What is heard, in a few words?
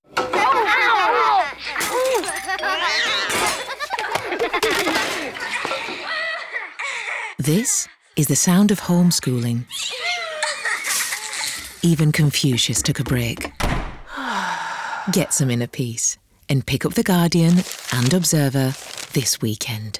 female English northern accent; english and greek; voices; the guardian radio commerc